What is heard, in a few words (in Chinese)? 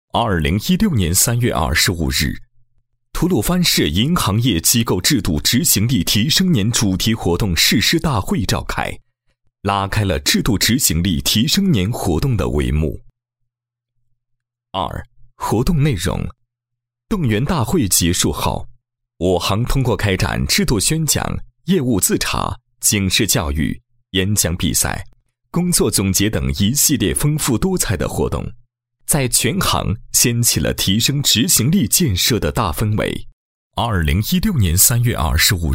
男, 企业宣传; 中国建设银行配音